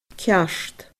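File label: "ceart", Scottish Gaelic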